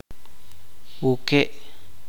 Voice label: "siete", chuj

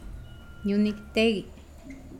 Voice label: Nawat palabras cortar; pipil

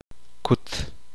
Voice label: uspanteko corto

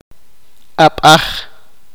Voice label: "piedra", sipakapense

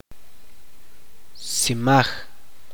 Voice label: "flecha", chuj

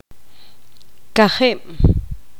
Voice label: poqomam cuatro

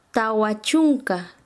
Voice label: "cuarenta", Quechua Chanka

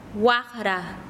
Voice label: "cuerno", Quechua Chanka

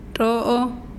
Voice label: "piojo", mazahua

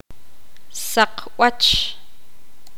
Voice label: poqomam granizo de hielo

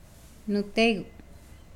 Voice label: Nawat palabras papaa; pipil

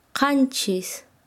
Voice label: "siete", Quechua Chanka

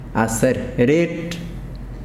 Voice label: cuerda, yanesha

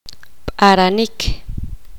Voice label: poqomam quemar

